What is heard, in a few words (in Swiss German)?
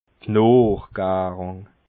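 "noochgarung", Alsatian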